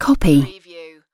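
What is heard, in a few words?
"copy", British female; lynne publishing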